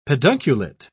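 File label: "pedunculate", English, British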